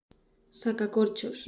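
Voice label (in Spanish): "sacacorchos", Spanish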